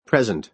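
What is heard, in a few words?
"present", American English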